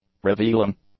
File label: "revealing", English; adjectives